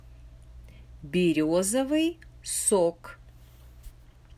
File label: pronunciation word berezoviy sok